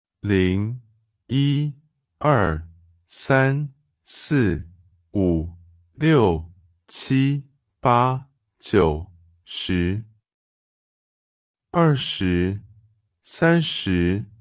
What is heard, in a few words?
number men voice